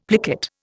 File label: "plicate", Indian; Dictionary